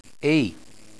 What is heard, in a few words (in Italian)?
"Ehi", Italian